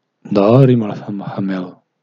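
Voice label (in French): "Bonjour", French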